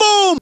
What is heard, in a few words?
"boom", Voice